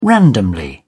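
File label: "randomly", British English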